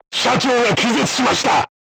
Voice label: voice message commander contused, crew tanks japanese